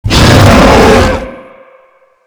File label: hurt; thor